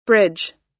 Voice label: "bridge" US